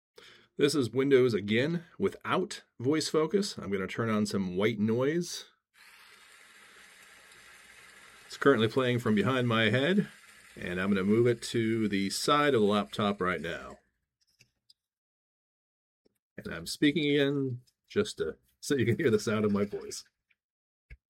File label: Voice focus off white noise; Windows 11